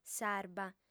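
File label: "sarba", lingua sarda, female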